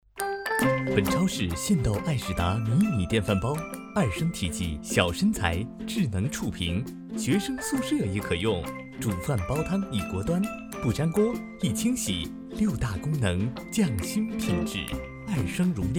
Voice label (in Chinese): 广告样本音